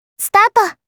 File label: "Start", Kawaii Voice, Commands